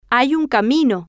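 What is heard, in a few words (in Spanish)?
hay un camino, Spanish, female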